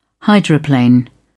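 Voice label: "hydroplane", British English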